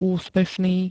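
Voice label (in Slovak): "úspešný", Slovak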